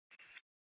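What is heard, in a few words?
Police Department North Dispatch, scanner; Scottsdale PD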